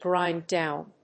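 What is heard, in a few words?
"grind down", English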